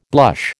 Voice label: "blush", American